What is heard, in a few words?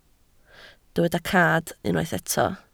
"cad", Welsh